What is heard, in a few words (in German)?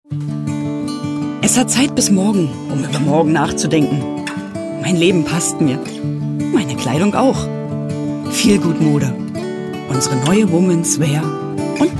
werbung